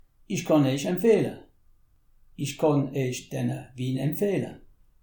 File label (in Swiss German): "empfehle", alsace